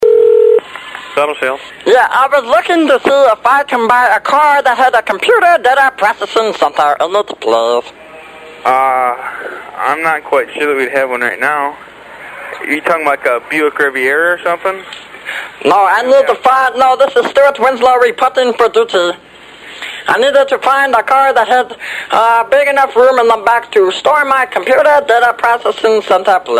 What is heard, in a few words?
telephone